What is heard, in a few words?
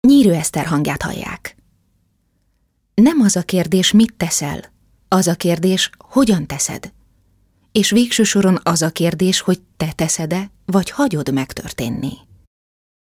hungarian f narration; voice demos